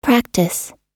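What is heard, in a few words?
"practise", American English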